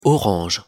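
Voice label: "orange", French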